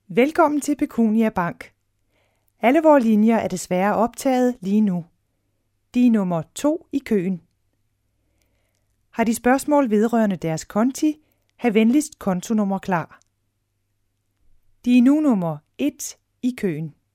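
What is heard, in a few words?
danish female ivr, voice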